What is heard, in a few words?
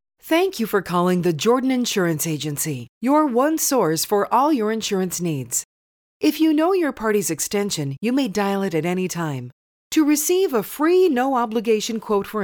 Company Greetings; Jordan Insurance Agency